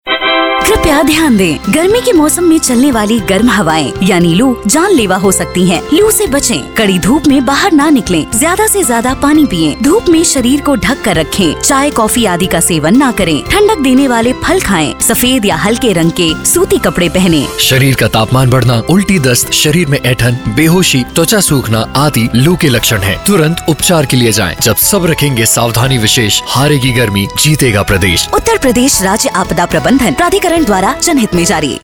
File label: heatwave jingles